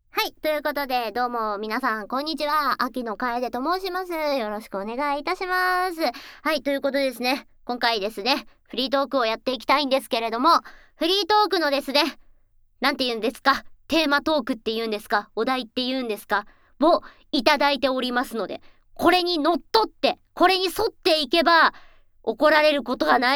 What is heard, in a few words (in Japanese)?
フリートーク 音声